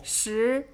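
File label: "Shi", ten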